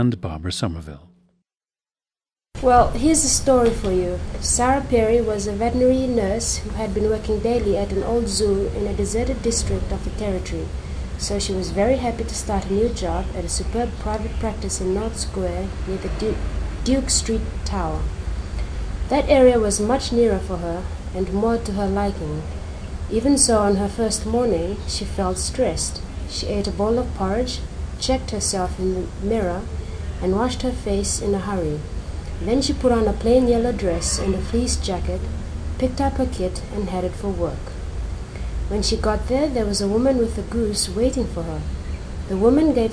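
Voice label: fiji, International Dialects of English Archive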